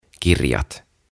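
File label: "Kirjat" Finnish; Books; OTAVA KONSERNI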